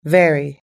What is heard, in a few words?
"very", us-english